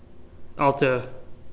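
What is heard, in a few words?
"alto", CAN, male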